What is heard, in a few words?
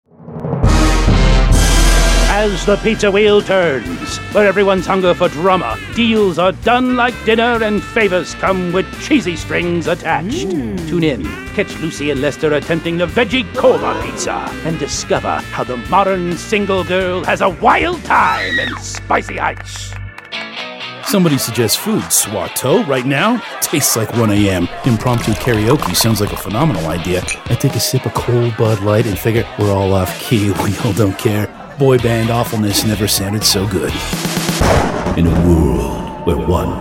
commercial, voiceovers